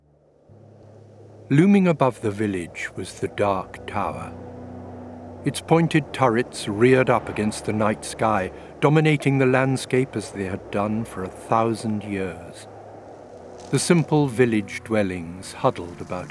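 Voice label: audiobook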